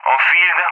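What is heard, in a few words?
"Enfield", English speech; Allied Flight; CallSign; DCS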